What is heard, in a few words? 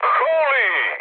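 Serbian trick mood high; War Thunder; speech srb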